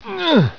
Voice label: pain; residuallife